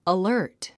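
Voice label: "alert", English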